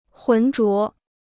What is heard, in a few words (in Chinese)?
"混浊", Chinese